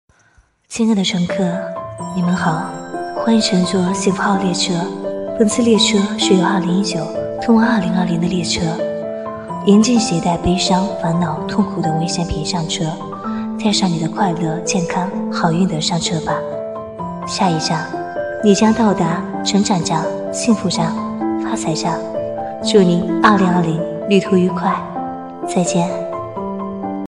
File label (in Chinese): 御姐; 欢迎乘坐幸福号列车